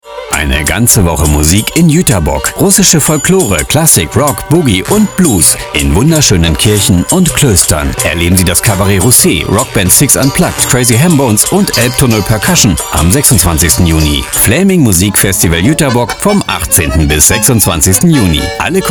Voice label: Entertainment Radiowerbung

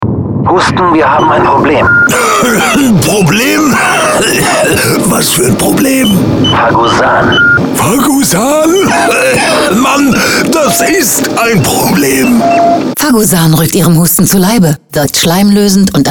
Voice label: Gesundheitswesen Pharma Radiowerbung

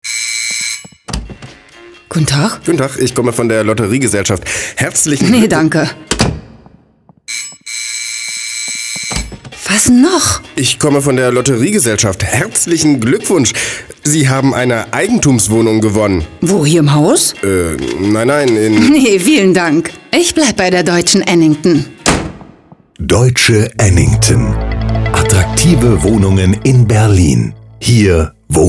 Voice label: Wohnen Radiowerbung

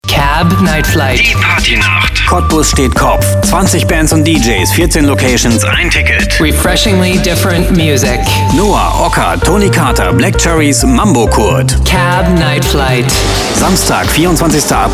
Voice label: Entertainment Radiowerbung

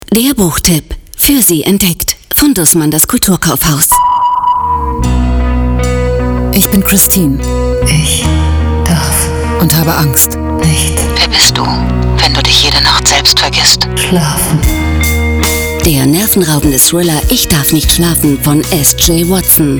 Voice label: Medien Radiowerbung

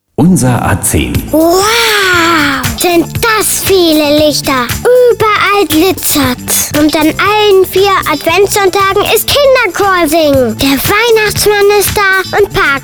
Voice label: Handel Radiowerbung

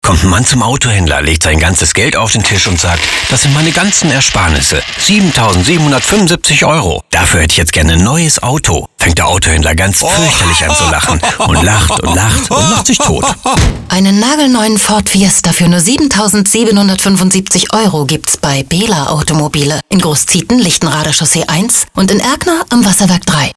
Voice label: Automotive Radiowerbung

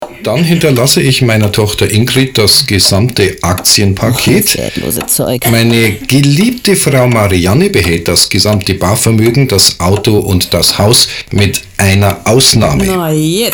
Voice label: Bauwesen Radiowerbung

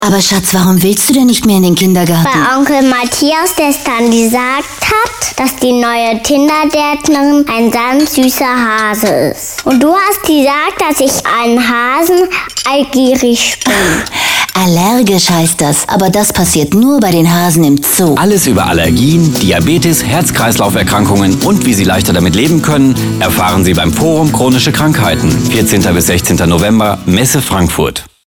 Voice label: Gesundheitswesen Pharma Radiowerbung

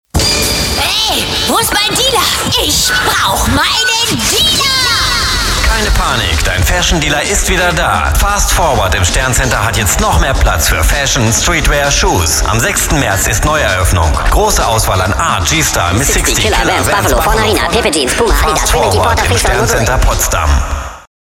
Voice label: Fashion Mode Radiowerbung

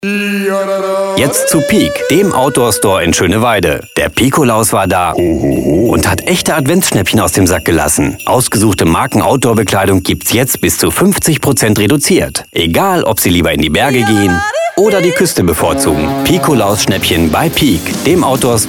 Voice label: Handel Radiowerbung

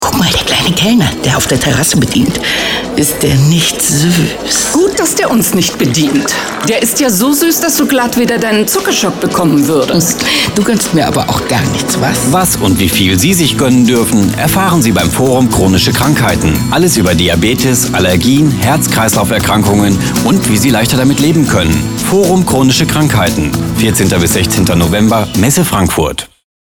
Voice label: Gesundheitswesen Pharma Radiowerbung

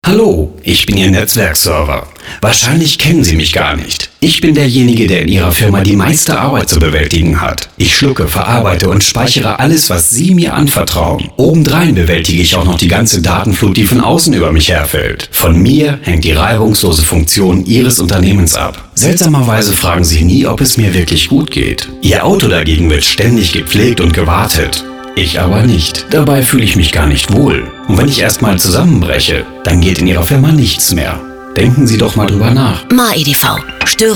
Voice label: Computer Radiowerbung

